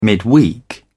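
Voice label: "midweek", British English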